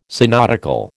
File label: "synodical", American